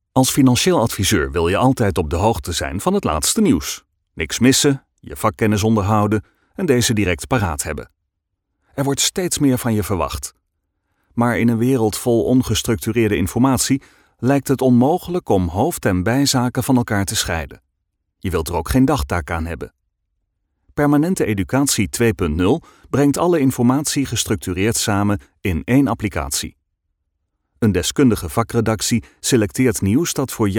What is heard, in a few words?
corporate rational